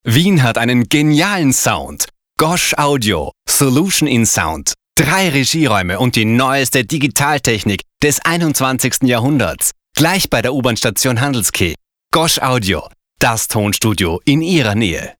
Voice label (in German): plakativ